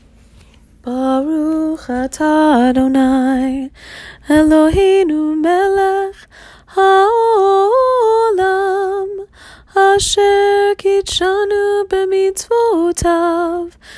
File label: Candle Blessing Female Voice